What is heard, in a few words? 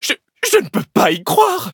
defeat, fr; Cookie Run